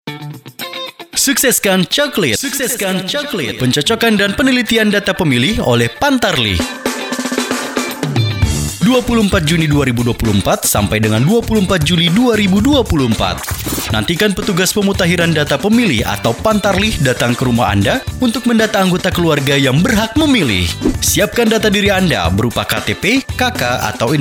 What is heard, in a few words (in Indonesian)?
SPOT IKLAN; SUKSESKAN COKLIT KPU KAB BONEBOLANGO